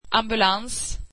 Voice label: swedish ambulance